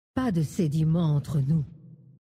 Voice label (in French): Terrestres Femme Blague, VF; Sediment